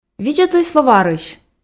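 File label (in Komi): видзӧдлӧй словарысь, Komi, female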